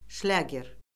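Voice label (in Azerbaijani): "şlyager", Azerbaijani; Orfoqrafiya Lüğəti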